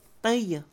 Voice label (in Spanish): derecha, Mixe, Mujer; INALI